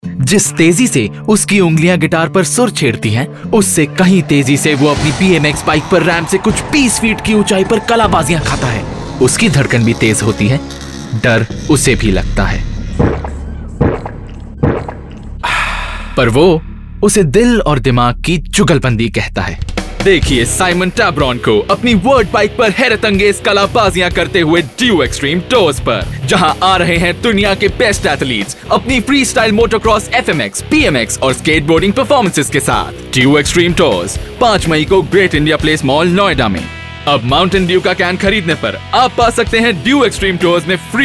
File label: male voice